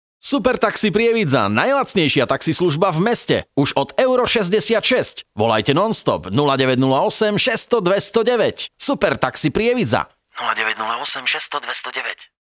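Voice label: slovak male commercial; voice demos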